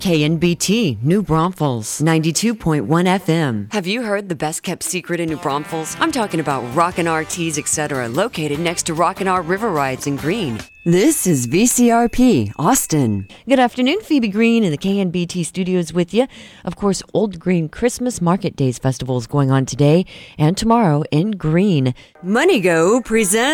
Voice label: commercial